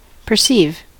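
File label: "perceive", US English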